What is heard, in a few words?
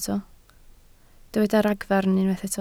"ragfarn", Welsh